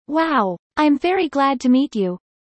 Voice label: fast; studying abroad